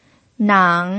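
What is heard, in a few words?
"nang", pinyin, third tone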